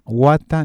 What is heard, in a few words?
"wata", cusco quechua